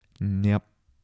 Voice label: "涅", 台城, 男

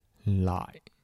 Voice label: "礼", 台城, 男

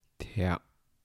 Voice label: "憡", 台城, 男

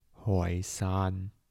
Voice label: "台山", 台城, 男

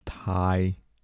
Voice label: "妻", 台城, 男

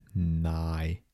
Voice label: "泥", 台城, 男

